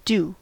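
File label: "due", US English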